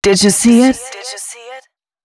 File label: female spoken hooks, dance ultra voice